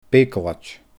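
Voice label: "beugaileid", Scottish Gaelic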